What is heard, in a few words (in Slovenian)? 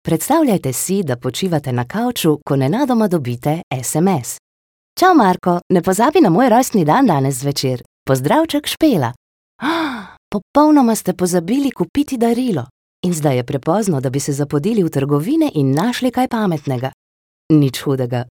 slovenian female corporate